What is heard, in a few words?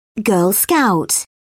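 "girl scout", English, British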